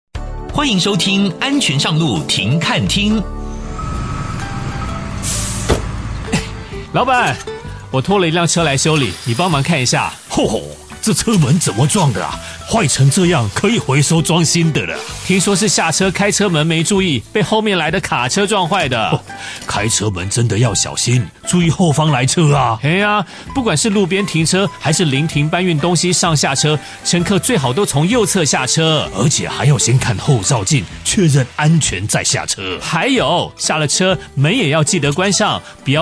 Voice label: Car Door Safety Chinese; ICRT; Taiwan; TrafficTrainer